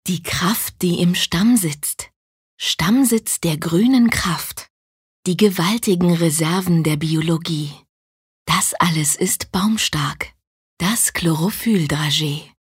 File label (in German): Werbung Sanft